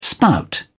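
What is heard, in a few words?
"spout", British English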